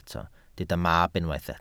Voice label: "mab", Welsh